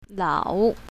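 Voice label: "lao3", pinyin, south, female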